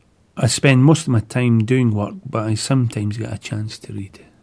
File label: interviewee male filler